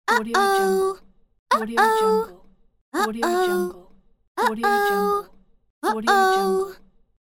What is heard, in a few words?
female child saying uh oh